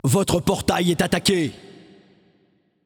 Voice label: Announcer French Match Under Attack Gate; Paladins